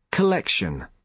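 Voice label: "collection", British English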